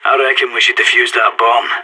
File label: no enemies left bomb, player vo sas